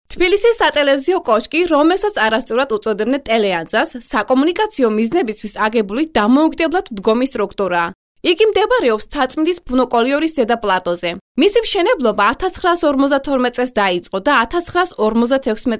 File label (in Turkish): GÜRCÜCE